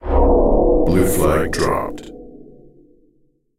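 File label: blue dropped, announcer; ctf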